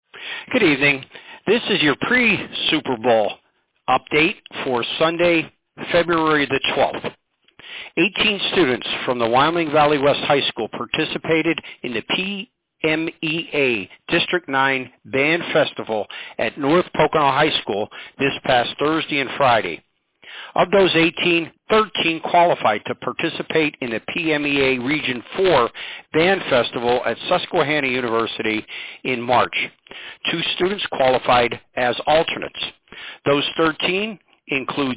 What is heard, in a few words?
Robocall